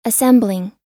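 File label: "assembling" US English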